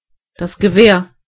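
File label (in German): "rifle", German; gcompris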